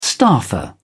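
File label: "staffer", British English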